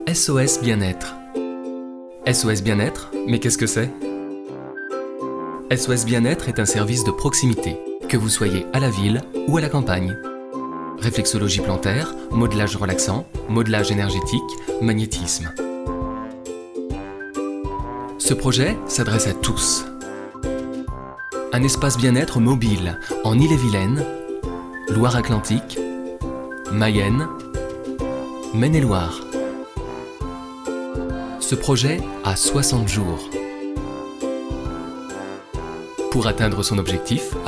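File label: French, narration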